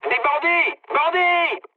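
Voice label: French enemy detected mood med; War Thunder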